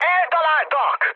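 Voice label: Hungarian aircraft damaged mood high, speech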